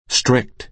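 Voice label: "strict", American English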